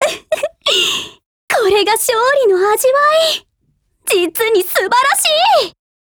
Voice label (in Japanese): WIN JP